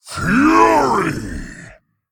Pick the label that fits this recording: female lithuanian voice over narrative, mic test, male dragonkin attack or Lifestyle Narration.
male dragonkin attack